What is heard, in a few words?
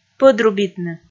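"пӧдрубитны", Komi, female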